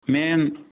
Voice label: im from, uzbek